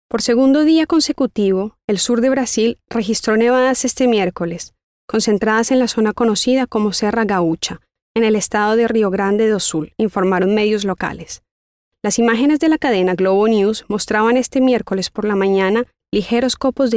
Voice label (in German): Nachvertonung Film Sprecherin Spanisch LA